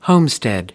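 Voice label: "homestead", American English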